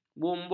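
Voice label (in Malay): "bumbung", Malay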